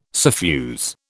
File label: "suffuse", British